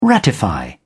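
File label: "ratify", British English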